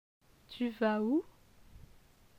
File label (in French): "tu vas ou", francais; phonetique; les voyelles